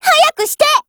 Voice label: attack JP; UMP40